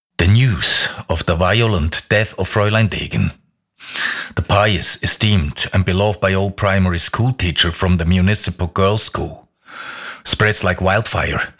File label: Text englisch